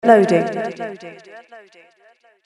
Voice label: Loading Female Voice Delay; Recorded Voice Sound Effects; spoken word

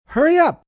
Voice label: Hurry Up M, male, English; Talking; Calls, action; VoiceBox

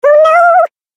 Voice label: Cartoon Game Voice Oh Nooooo; Cartoon Voice Sound Effects

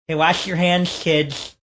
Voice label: Wash Your Hands, Male; Talking; VoiceBox

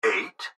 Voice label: Gps Voice, Male

